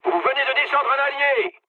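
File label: player killed ally, mood low, French; War Thunder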